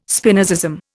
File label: "Spinozism", Indian